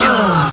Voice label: "uh", male